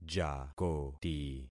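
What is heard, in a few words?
"jakoti", concatenated syllables; Affixing experiment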